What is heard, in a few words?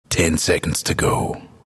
announcer count battle 10; dlc bastion